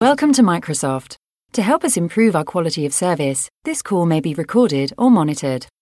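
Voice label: UK English, IVR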